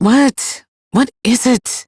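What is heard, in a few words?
Ambient Female Sweeper, Dialog; Duviri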